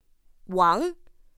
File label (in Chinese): "wáng", pinyin; pinyin2; pinyinji